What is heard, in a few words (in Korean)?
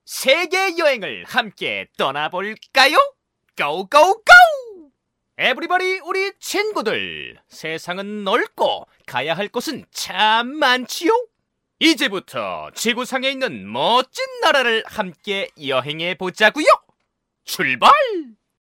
익살스러운 개그스러운 텐션 높은 진행자